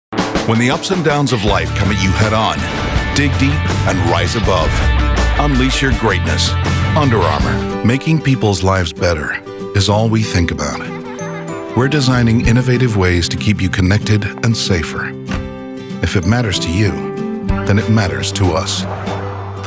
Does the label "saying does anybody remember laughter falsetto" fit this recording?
No